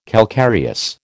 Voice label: "calcareous", American

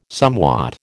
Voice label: "somewhat", American

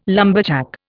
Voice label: "lumberjack", Indian

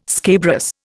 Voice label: "scabrous", Indian

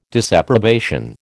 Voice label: "disapprobation", American

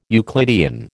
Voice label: "Euclidean", American

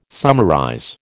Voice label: "summarize", American

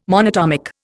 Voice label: "monatomic", Indian

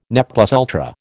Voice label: "ne plus ultra", American

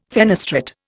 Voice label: "fenestrate", Indian

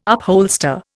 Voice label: "upholster", Indian

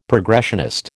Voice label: "progressionist", American

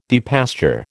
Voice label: "depasture", American

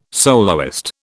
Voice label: "soloist", British

